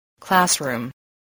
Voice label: "classroom", voice